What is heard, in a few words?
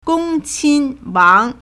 "gong1 qin1 wang2", Chinese